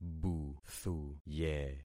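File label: "buthuye", concatenated syllables; Affixing experiment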